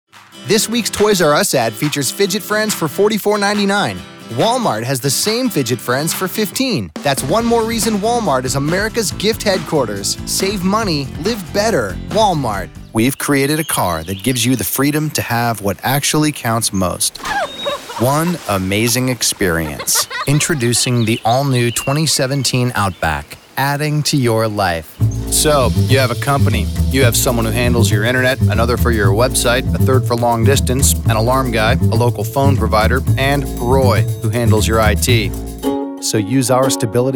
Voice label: Commercial Voiceover